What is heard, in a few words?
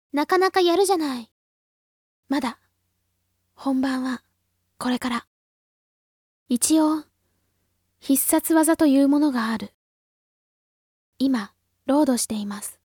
girl